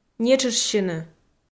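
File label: "нетшыштсьыны", Komi, female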